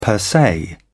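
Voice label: "per se", British English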